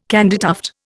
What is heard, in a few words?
"candytuft", Indian